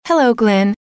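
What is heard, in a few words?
"hello", female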